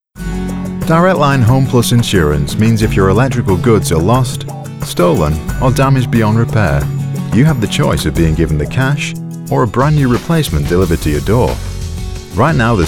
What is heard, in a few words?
insurance commercial